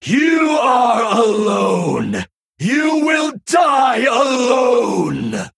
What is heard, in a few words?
Male Night Elf